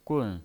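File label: "gun", Mandarin Chinese, fourth tone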